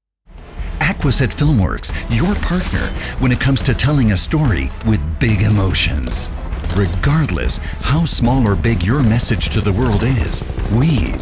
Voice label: Eng US Dynamisch

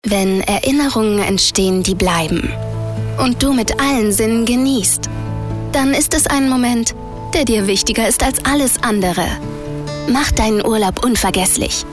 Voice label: Sanft

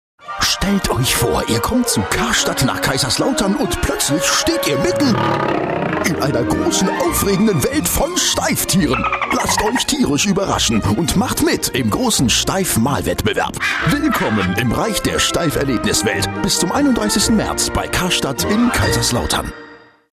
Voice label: Werbung, Dynamisch

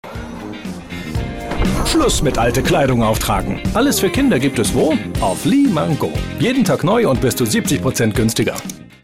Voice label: Werbung Dynamisch

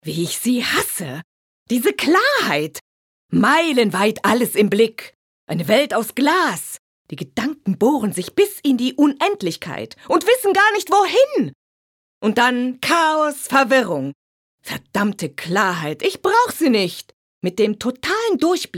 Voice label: Schauspiel Energisch

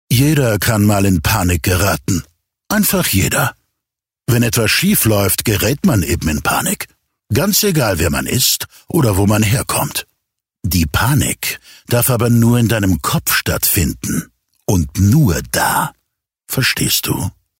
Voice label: Panik Cool

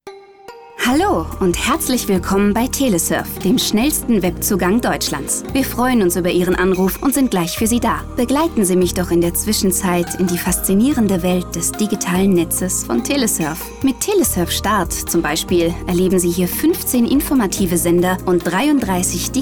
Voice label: Neutral; Telesurf

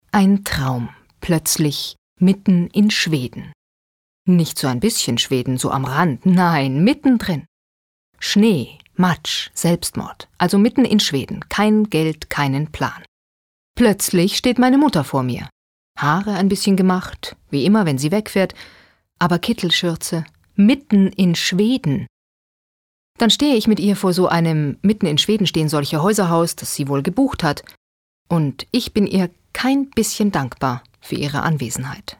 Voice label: Schauspiel Neutral

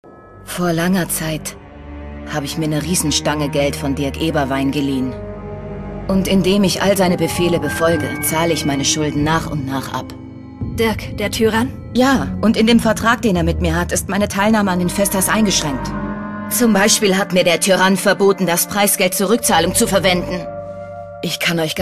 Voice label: Dynamisch

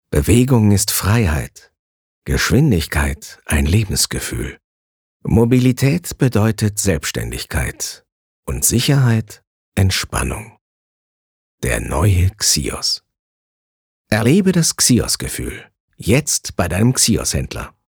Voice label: Sanft; Xios

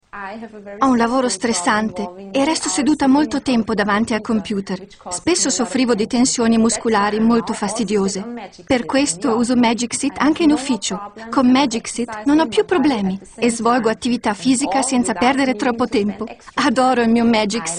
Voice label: Dynamisch

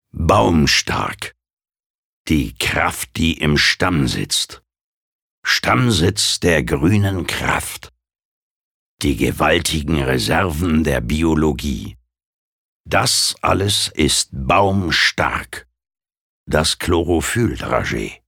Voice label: Werbung Kraftvoll

